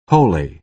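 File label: "holy", American English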